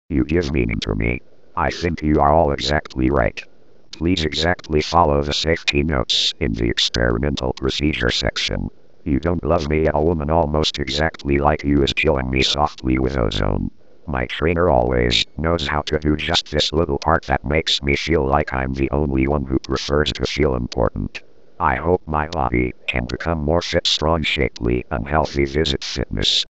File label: Truvoice adult male, poetry readings